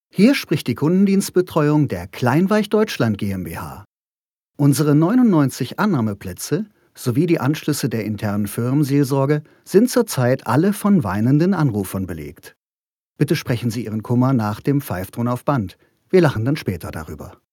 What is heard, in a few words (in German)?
Telefonschleife ironisch